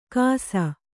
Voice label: "ಕಾಸ", Kannada

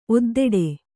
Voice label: "ಒದ್ದೆಡೆ", Kannada

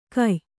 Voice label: "ಘಾಯ", Kannada

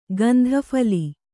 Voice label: "ಗಂಧಫಲಿ", kannada

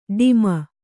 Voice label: "ಡುಮ್ಮು", Kannada

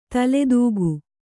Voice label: "ತಲೆದೂಕ", Kannada

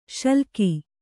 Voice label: "ಶ್ಲಾಘಿ", Kannada

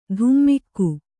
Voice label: "ಧುಮ್ಮಿಕ್ಕು", Kannada

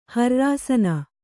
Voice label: "ಹ್ರ್ರಾಸನ", kannada

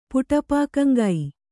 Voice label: "ಪುಟಪಾಕಂಗೈ", Kannada

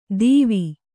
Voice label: "ದೀವಿ", Kannada

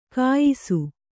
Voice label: "ಘಯ್ಸ", Kannada

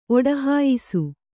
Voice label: "ಒಡಹಾಯಿಸು", Kannada

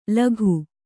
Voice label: "ಲಗೂ", Kannada

